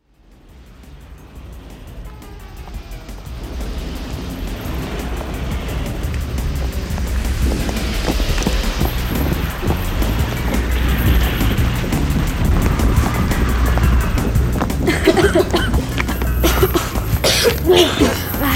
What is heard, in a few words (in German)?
Hoerszene; Die Gute Nachricht